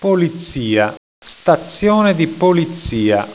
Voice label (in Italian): "polizia", italiaans; plaatsen